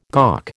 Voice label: "gawk", American